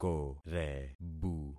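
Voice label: "korebu", concatenated syllables; Affixing experiment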